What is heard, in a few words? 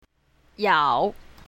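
"yao3", Chinese pinyin, south, female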